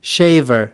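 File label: "shaver", English word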